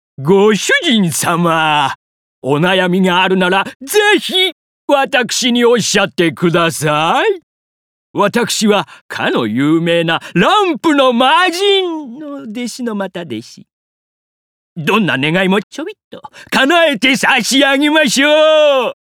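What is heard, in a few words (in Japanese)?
頼りない魔人50代, 大阪弁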